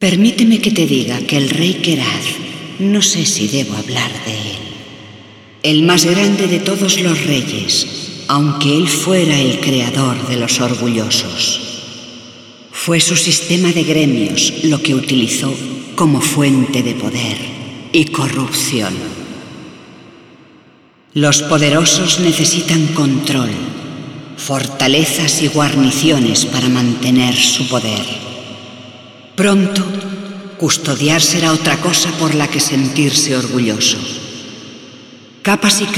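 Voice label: Yeesha Speech spanish; moul-assets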